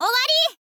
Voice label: "owari", info girl voice

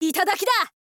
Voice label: thief boy special

